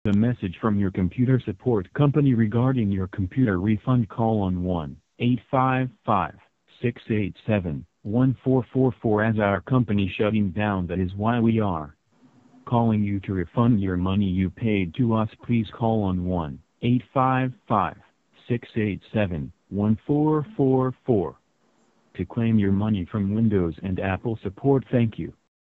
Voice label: Phone Scam Apple Microsoft Refund